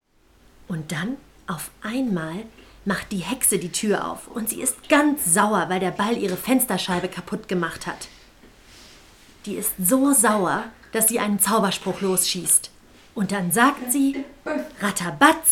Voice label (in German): Overvoice Protagonistin